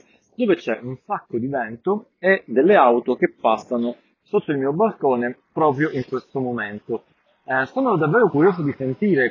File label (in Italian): Test registrazione Samsung Galaxy Buds Live